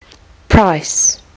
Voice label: "price", Kiwi English

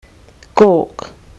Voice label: "gawk", kiwi english

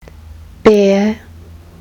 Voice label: "bear", kiwi english

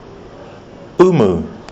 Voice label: "umu", kiwi english

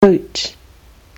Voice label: "boot", kiwi english

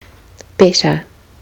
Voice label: "better", kiwi english

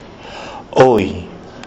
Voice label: oi as in poi, kiwi english

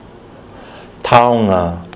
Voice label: "taonga", kiwi english

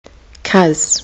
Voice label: "cuz", kiwi english

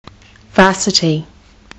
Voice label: "varsity", kiwi english

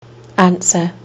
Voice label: "answer", kiwi english

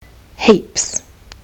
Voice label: "heaps", kiwi english

